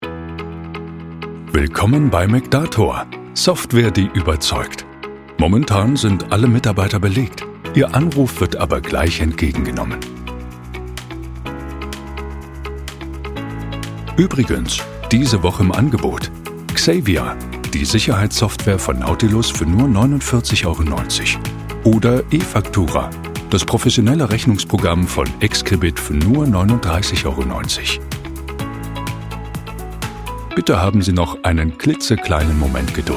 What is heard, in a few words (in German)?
Hoerprobe Telefonansage; McDator